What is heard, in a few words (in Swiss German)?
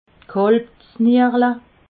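"kalbsnierle", Alsatian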